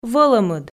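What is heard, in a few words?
"вӧлӧмыд", Komi, female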